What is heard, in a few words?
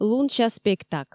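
"лунся спектак", Komi, female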